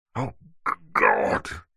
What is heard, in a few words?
human male grumpy angry frustrated growls oh god; zapsplat